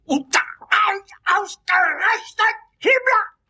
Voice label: chat